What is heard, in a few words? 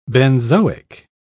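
"benzoic", English, British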